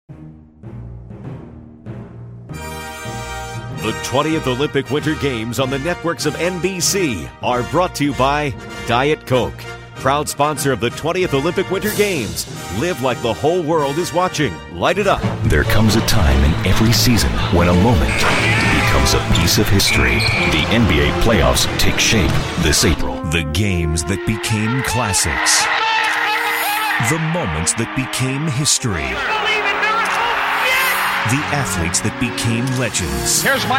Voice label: sports promo, men